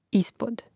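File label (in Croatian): "ispod", hrvatski; mreznik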